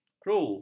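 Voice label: "kru", Malay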